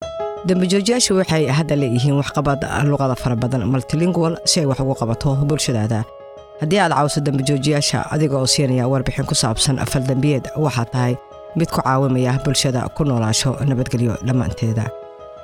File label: Somali; Crime Stoppers